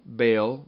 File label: "bale", Minimal Pairs; Rhymes ail